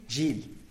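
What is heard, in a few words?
"zhily" sheep, Zapotec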